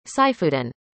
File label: "saifuddin", arabic; indonesian boy names